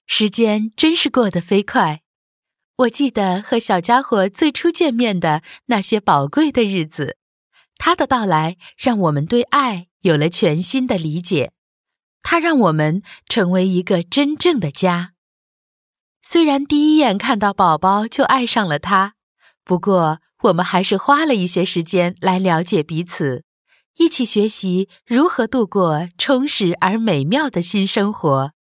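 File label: Chinese narration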